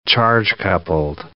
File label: "charge-coupled device", English